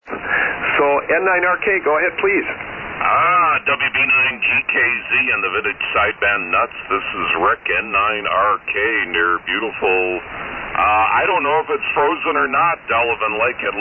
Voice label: Hallicrafters HT-32A - SX-101 D-104 Mic & Dentron Clipperton Amp; Delavan,Wi.